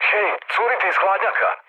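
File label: Serbian damaged water mood low, speech; War Thunder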